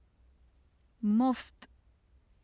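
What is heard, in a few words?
"muft", Urdu; مفت; 無料の（形）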